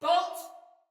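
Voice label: See Player Ready, Soldier Female, Battle Barks; Sinecure; en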